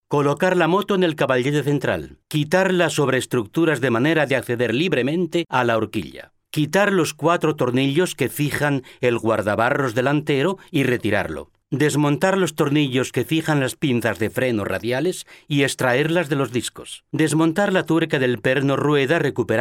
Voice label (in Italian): spagnolo spa